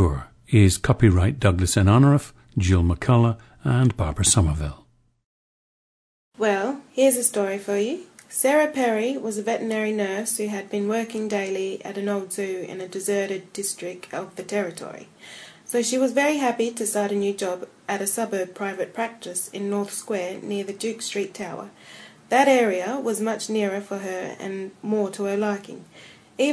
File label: australia